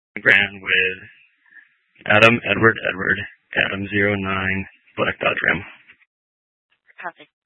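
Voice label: Incident Tactical 3, Scanner audio recording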